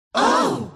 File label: "Oh!" Womans Voice